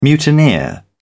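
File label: "mutineer", British English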